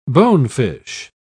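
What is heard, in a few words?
"bonefish", British English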